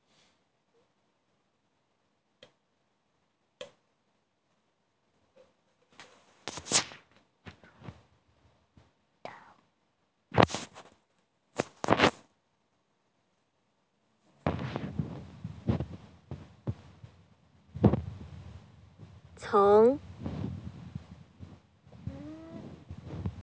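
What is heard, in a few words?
"ceng2", Mandarin Chinese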